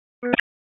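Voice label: Raleigh Police North District Tactical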